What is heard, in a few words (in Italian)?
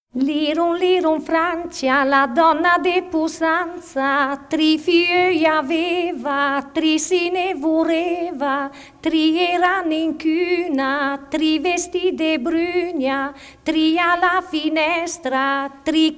filastrocca; brianza; liru liru Francia